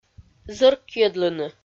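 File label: "зӧркйӧдлыны", Komi, female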